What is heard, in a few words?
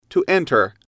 "to enter", English